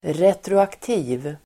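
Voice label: "retroaktiv", Swedish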